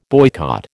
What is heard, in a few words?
"boycott", American